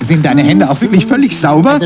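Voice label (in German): "sauber", German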